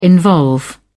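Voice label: "involve", British English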